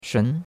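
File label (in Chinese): "shun2" Chinese; Cours de Chinois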